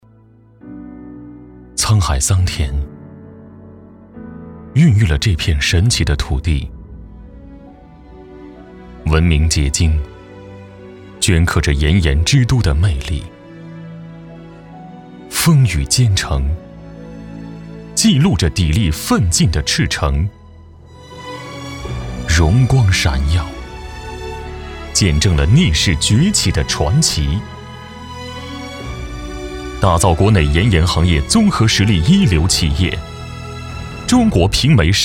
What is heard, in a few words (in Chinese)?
男 企业专题; 中国平煤神马集团联合盐化有限公司